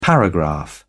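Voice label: "paragraph", British English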